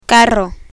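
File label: "carro", Spanish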